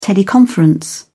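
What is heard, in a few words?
"teleconference", British English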